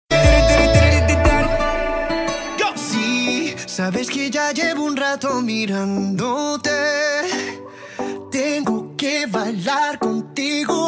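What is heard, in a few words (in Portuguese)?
ANIV DE NOIVADO male, telemensagem de noivado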